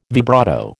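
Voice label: "vibrato", American English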